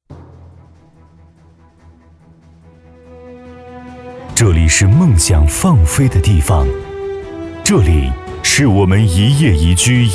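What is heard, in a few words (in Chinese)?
专题城市宣传; 东盟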